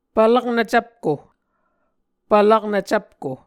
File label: "pvlaknv cvpko", Muscogee